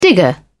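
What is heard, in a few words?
"digger", en, British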